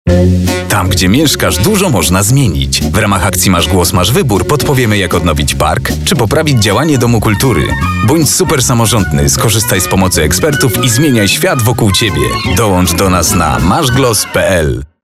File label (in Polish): Spot radiowy